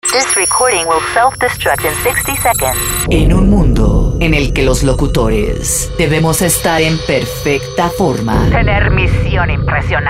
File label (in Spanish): Super Locutora